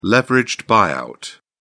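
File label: "leveraged buyout", British English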